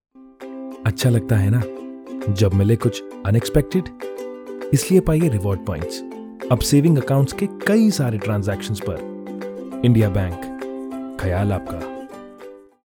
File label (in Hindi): Hindi Male